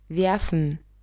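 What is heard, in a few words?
"werfen", German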